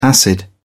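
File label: "acid", British English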